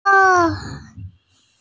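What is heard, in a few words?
"yi1sheng1" Mandarin Chinese, female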